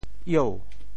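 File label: "ieu2", Teochew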